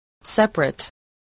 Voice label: "separate", english spelling